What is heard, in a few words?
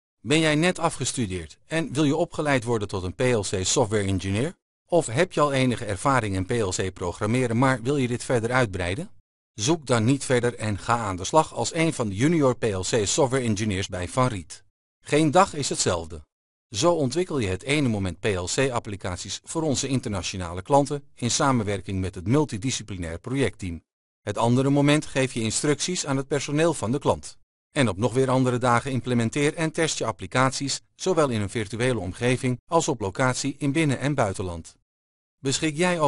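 Dutch, male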